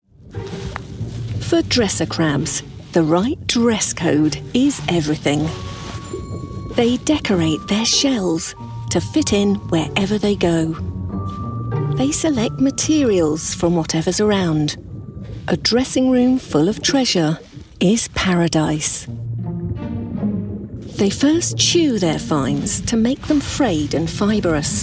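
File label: Narration, British English; Professional voice over artiste for British English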